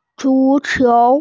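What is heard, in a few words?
"zu2qiu2", Mandarin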